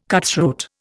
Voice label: "cutthroat", Indian

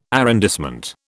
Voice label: "arrondissement", British

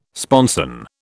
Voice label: "sponson", British

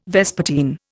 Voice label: "vespertine", Indian

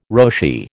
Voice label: "Roshi", American

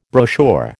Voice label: "brochure", American

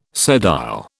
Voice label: "sedile", British

